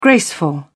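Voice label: "graceful", English, British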